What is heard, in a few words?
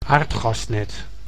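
"aardgasnet", Dutch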